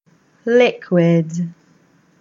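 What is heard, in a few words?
English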